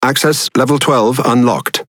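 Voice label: Speech Access Level, British English; World Of Warships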